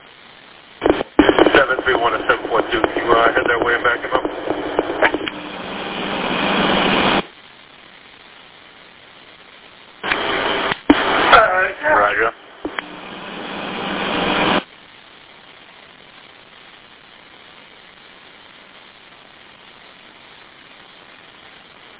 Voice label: Police Radio